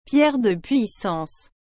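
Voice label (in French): "pierre de puissance", French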